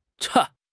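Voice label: "cha1", Chinese; HSK; HSK3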